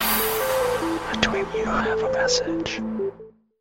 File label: cool whisper message ringtone; samsung